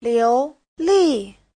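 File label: "liúlì", Mandarin Chinese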